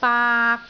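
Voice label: "baak3", Cantonese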